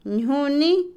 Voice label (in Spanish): Otomí, mujer; comida; INALI